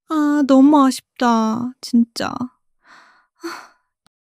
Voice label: crying; monologue